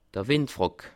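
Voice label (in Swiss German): "windfrack", Bas Rhin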